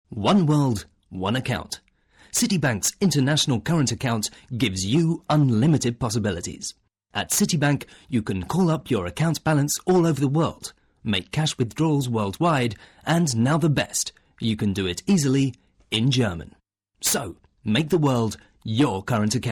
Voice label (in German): uk englisch